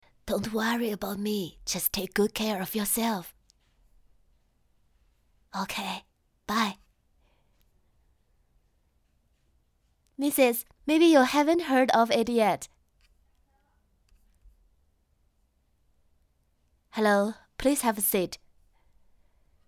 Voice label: 女多角色英语

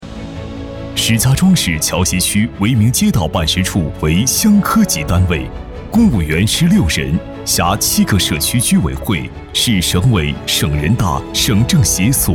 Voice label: 男,专题; 牢记使命创佳绩

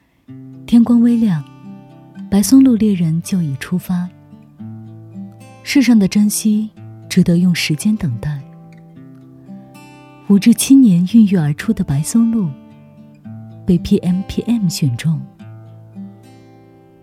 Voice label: 女,旁白类 护肤

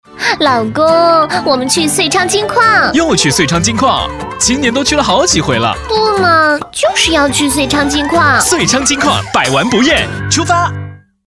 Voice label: 女 电台促销; 丽水; 遂昌金矿

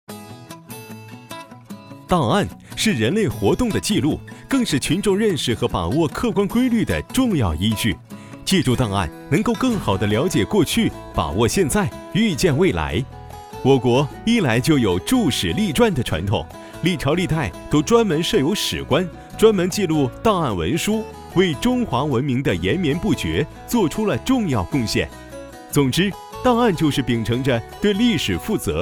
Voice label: 男 年轻活泼偏飞碟说; 档案

